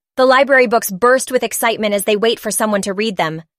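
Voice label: "burst", English; 大学入試頻出英単語; 破裂する；（…を）突然始める（into）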